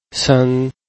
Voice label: "sen1", Chinese

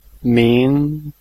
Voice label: "ming2", Chinese

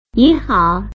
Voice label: "nihao", Chinese

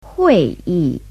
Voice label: "hui4 yi4", Chinese

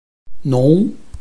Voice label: "nong2", Chinese

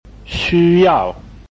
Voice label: "xu1yao4", Chinese

